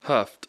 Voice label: "huffed", English